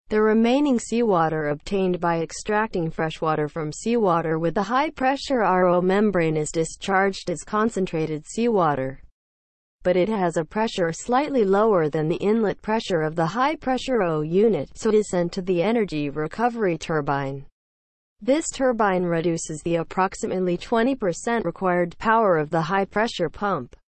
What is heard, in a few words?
english, seawater desalination; watercompany